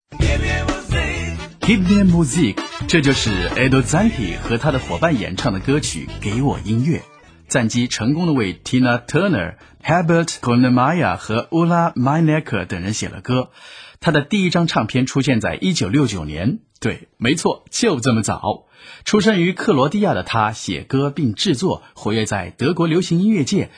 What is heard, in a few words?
Moderation Chinese, maenner